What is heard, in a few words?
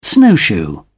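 "snowshoe", British English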